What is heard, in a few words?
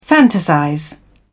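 "fantasize", British English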